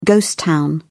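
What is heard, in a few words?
"ghost town", British English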